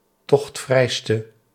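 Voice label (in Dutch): "tochtvrijste", Dutch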